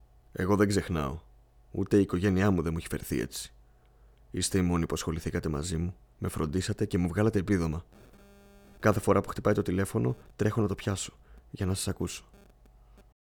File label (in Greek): Ηλικιωμένος 3ης Ηλικίας